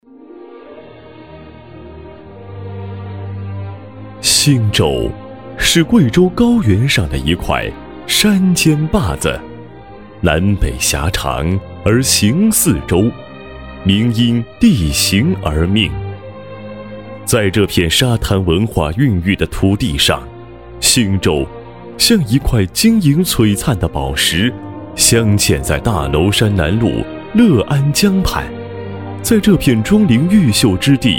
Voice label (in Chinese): 男 学校宣传; 新舟小学